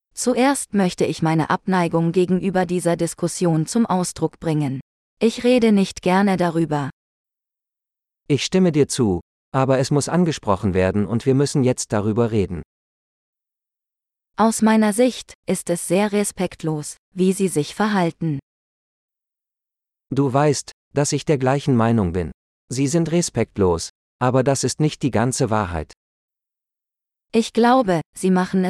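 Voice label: Making a Point - Dialogue, German; de-en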